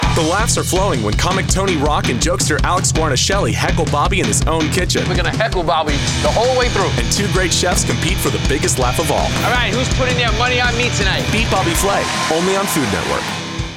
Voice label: promo voiceover; Food Network